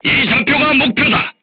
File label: voice message art command oneself, korean